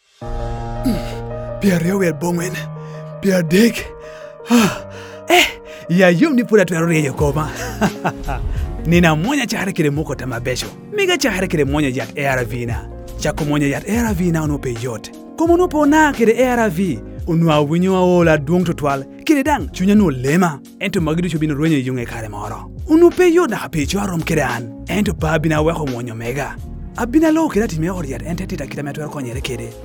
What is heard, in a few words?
Time Up HIV Spot New on Treatment Langi